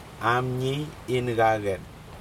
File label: drink kava, Aneityum